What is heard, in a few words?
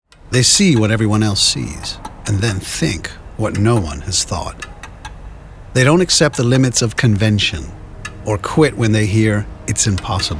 documentary; Grolsch